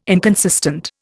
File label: "inconsistent", Indian